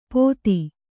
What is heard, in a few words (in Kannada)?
"ಪೋಥಿ", Kannada